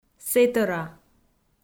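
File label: "сэтӧра", Komi, female; kpv audio dict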